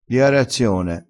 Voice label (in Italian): "diiarazione", crespinese